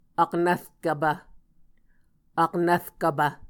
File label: "aknvrkvpv", Muscogee